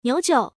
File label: female